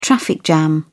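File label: "traffic jam", British English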